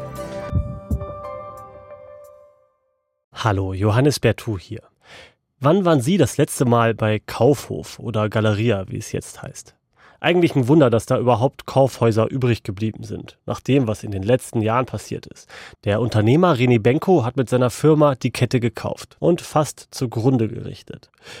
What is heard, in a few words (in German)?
radio Feature Trailer, Doku; Die Ruinen des Rene Benko